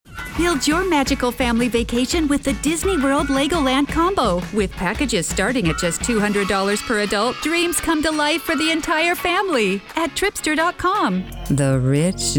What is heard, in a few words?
Commercial